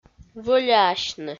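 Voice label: "вӧляясьны", Komi, female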